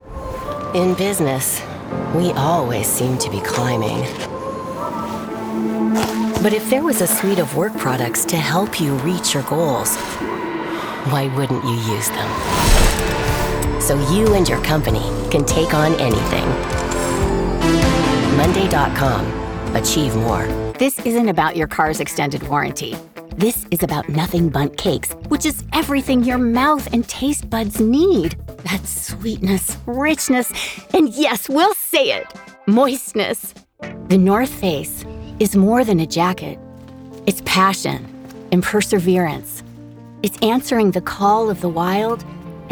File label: Commercial